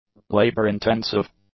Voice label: "labor-intensive", english; adjectives

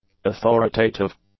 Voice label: "authoritative", English; adjectives

